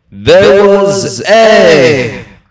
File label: Fast Rhyme